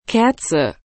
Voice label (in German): "Kerze", German